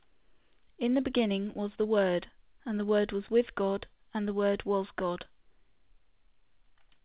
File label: female